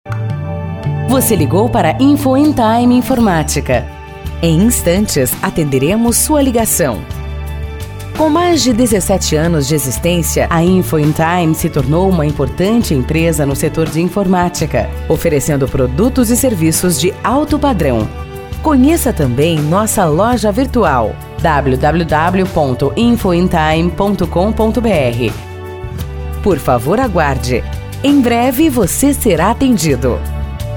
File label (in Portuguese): Espera Telefonica